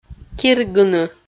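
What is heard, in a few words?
"киргыны", Komi, female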